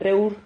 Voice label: "rrehur", Silabas

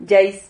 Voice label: "yais", Silabas

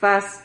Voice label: "fas", Silabas

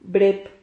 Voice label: "brep", Silabas

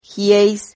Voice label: "gieis", Silabas

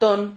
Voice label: "tón", silabas

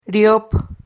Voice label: "riop", Silabas

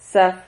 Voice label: "zaf", Silabas

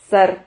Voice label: "zar", Silabas

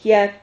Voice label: "jiad", Silabas

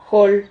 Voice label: "jol", Silabas

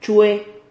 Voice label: "chue", Silabas

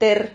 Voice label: "ter", Silabas

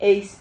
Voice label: "heis", Silabas

